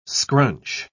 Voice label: "scrunch", pronounce